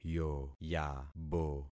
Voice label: "yoyabo", concat syllables; Affixing experiment

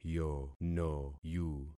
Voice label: "yonoyu", concat syllables; Affixing experiment

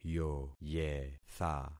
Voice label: "yoyetha", concat syllables; Affixing experiment

